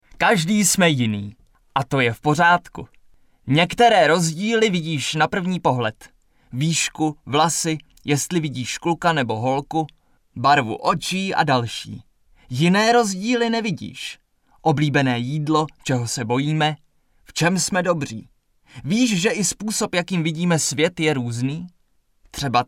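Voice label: Male Czech drama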